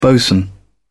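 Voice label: "bosun", British English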